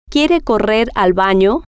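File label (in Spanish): quiere Correr Bano, spanish, female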